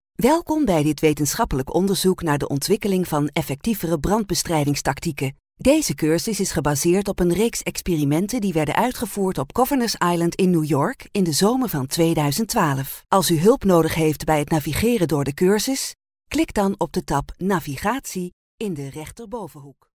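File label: Dutch, female, narration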